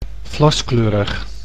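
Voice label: "vlaskleurig", Dutch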